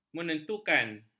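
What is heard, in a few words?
"menentukan", Malay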